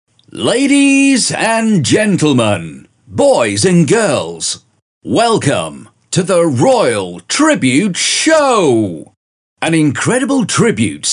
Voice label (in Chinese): 外籍英男 活动主持